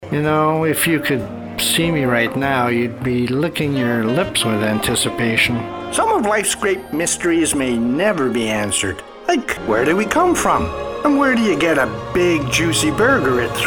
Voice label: Commercial